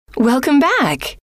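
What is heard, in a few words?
"welcome back", female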